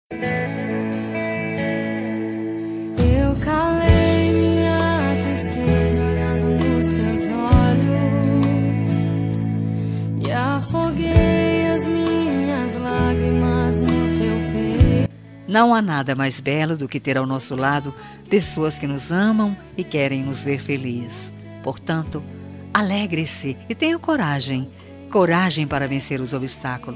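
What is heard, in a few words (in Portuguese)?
birthday EVANGELICO PLURAL female, telemensagem evangelica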